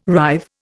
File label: "rive", Indian